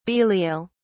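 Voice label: "belial", British English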